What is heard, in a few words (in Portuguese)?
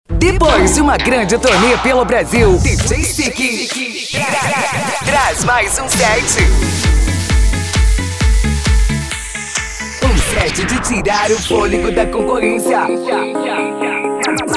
SPOT DJ; DJ CEEK